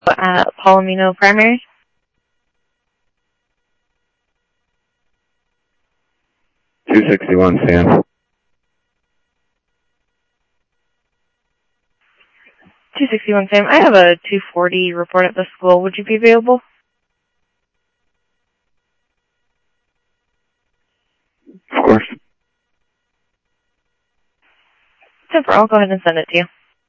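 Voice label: Police Department, scanner audio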